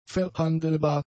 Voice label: "verhandelbar", German; adjectives

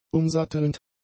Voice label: "umsattelnd", German; adjectives

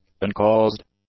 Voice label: "uncaused", English; adjectives

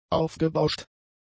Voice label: "aufgebauscht", German; adjectives

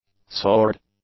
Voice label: "sword", english; nouns

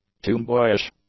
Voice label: "tomboyish", English; adjectives

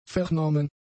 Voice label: "vernommen", German; adjectives

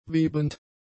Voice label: "webend", German; adjectives

